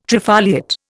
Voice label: "trifoliate", Indian